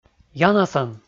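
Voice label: "янасӧн", Komi, male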